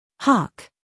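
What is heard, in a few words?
"humor", British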